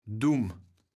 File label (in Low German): "Dumm", kaevels platt